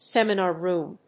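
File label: "seminar room", English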